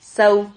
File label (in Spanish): "sau", silabas